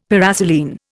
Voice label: "paraselene", Indian; Dictionary

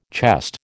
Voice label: "chest", American

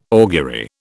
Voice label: "augury", British

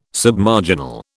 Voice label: "Submarginal", British

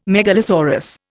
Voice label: "megalosaurus", Indian

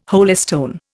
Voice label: "holystone", Indian

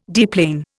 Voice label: "deplane", Indian

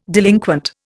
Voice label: "delinquent", Indian

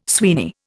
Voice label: "Sweeney", Indian

